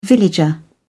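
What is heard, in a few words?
"villager", English, British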